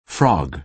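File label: "frog", American English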